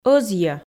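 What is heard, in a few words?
"ӧзйӧ", Komi, female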